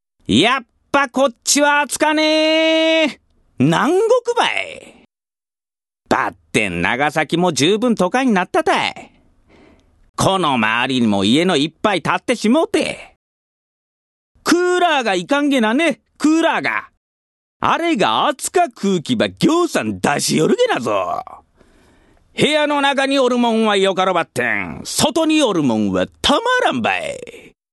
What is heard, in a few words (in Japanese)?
nagasakiben, male voice